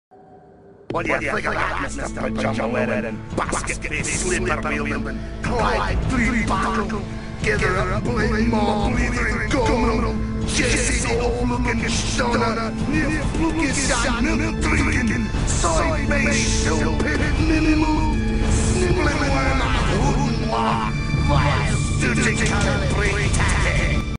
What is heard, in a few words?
scotsman